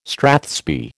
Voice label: "strathspey", American

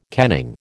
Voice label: "kenning", American

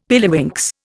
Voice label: "pilliwinks", Indian; Dictionary

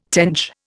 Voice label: "tench", Indian

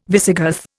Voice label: "Visigoth", Indian

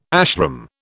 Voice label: "ashram", British

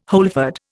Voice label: "wholefood", Indian

